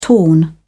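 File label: "torn", British English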